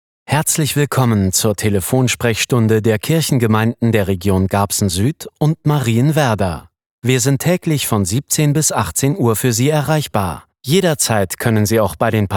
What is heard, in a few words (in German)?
telefonansage ohne musik; Sprecher in Berlin, Hamburg, Hannover; Muttersprache Deutsch